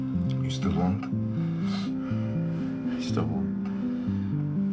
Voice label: with music